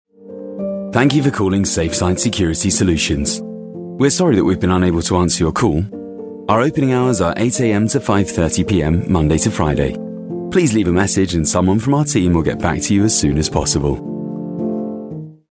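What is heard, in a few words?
telephone on-hold, friendly boy next door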